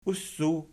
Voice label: "ussu", Archi